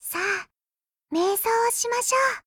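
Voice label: loading, Japanese; 人物：少女前线:柯尔特M1851N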